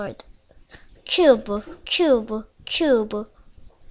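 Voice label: "cube", spoken word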